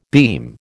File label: "beam", American